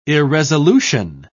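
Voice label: "irresolution", British English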